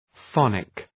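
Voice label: "phonic", English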